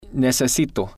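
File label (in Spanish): "necesito", spanish, male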